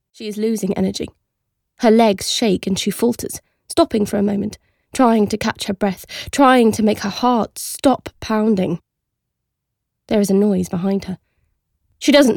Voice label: Narration Fiction